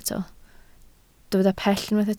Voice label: "pell", Welsh

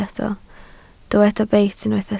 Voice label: "beit", Welsh

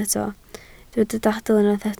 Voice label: "dadl", welsh

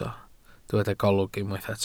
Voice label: "golwg", Welsh

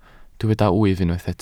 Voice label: "wyf", Welsh

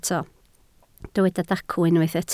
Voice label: "dacw", Welsh

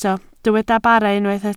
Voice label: "bara", Welsh

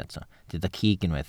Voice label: "cig", welsh

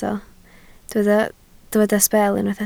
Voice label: "sbel", Welsh

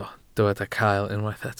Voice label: "cael", Welsh

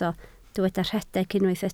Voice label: "rhedeg", Welsh

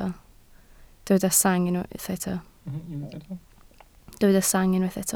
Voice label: "sang", Welsh

